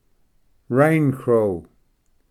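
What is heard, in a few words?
"rain crow", English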